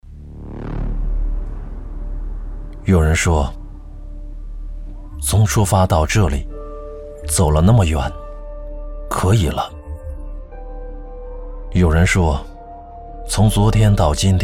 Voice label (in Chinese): 男 宣传片; 改革开放20年